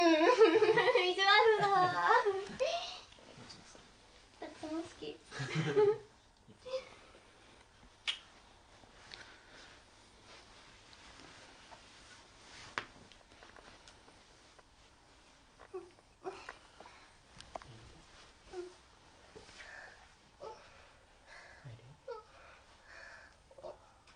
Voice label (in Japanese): 控えめVoice 24歳; 銀座ホステス